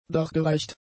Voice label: "dargereicht", German; adjectives